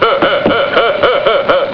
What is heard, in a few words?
robot laugh; robot b9